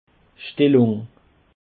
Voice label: "stellung", Alsace